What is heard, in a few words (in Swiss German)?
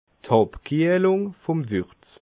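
"abkiehlungwurz", Alsatian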